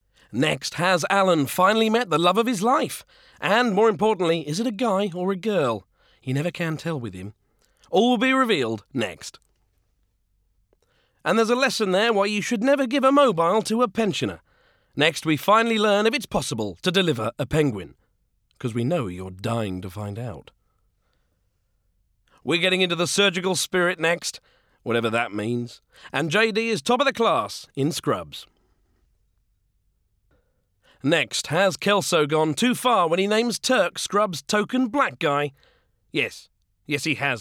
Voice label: male english continuity compilation; comedy central